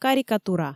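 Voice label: "карикатура", Komi, female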